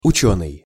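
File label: "ucenyj", Russian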